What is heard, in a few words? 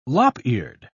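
"lop-eared", British English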